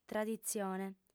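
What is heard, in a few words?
"traditzione", Sardinian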